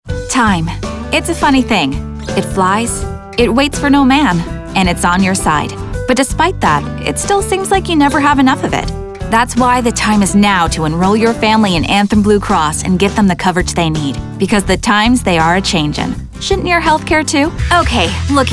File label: commercial women